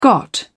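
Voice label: "got", English, British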